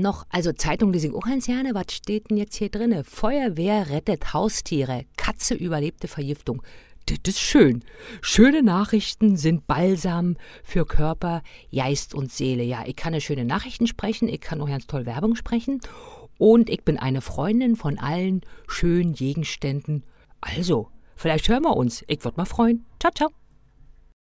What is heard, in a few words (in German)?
Berliner Dialekt